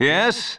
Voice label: Male; baldurs1